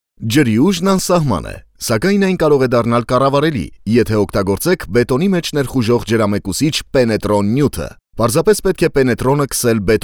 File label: male armenian